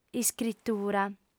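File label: "iscritura", female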